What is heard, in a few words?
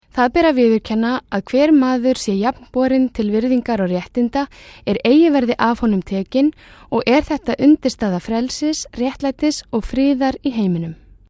Icelandic